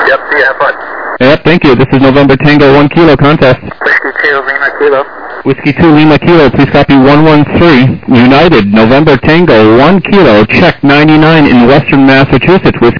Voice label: SSB LSB 7MHz